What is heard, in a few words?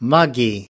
"muggy", us